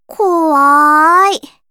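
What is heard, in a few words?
story, Japanese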